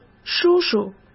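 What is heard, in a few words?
"叔叔" Mandarin Chinese; hsk; chinese-flashcards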